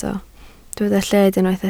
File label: "lled", Welsh